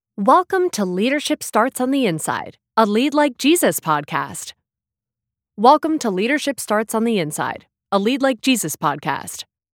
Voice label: Leadership Podcast

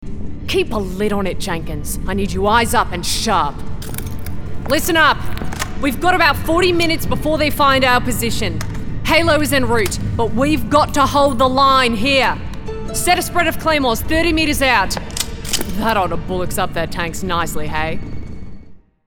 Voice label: Australian Commander

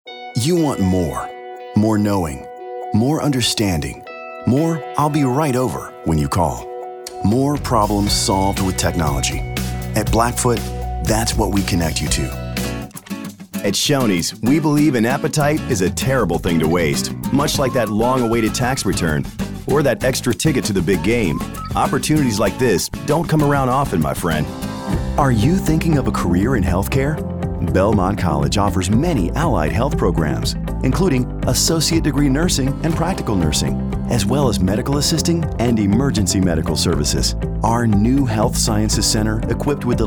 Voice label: Online Commercials

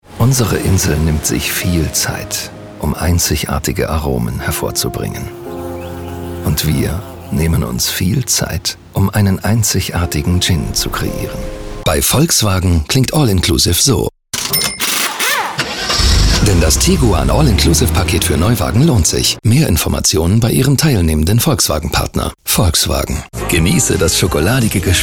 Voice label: Solo VO

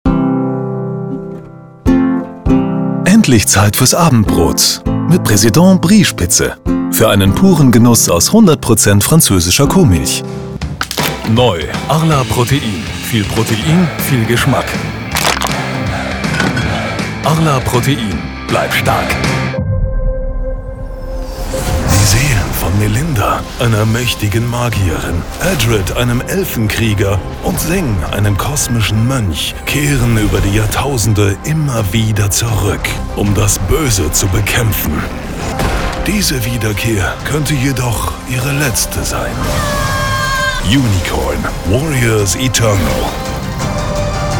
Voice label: Commercial Medley

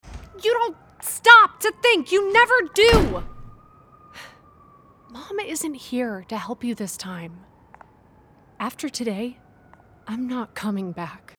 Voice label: VIDEO GAME Tough Love

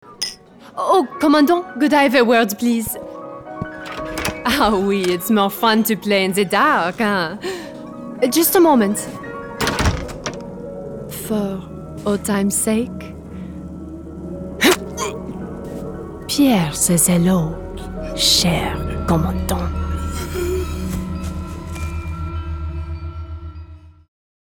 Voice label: French Spy

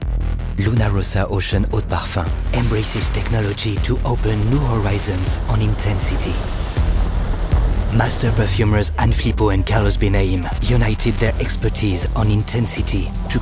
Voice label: English with French accent; Prada

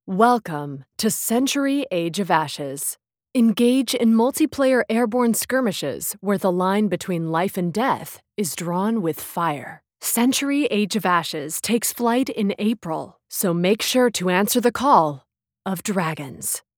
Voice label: Game Trailer

